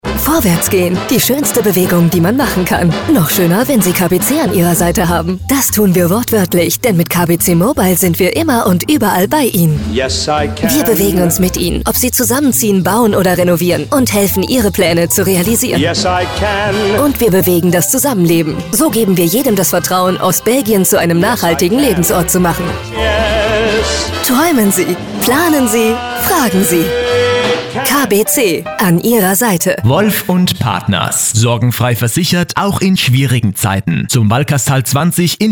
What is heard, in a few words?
radiospot